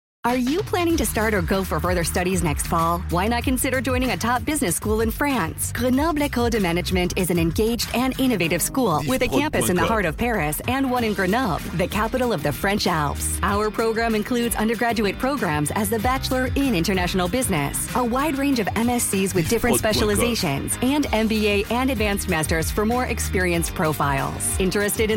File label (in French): Spot Ecole de Management Mix, publicite; PO Grenoble